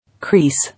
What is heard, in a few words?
"Crease", English